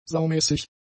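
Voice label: "saumäßig", german; adjectives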